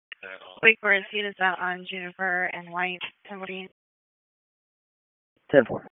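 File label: Police Dispatch; Wake Forest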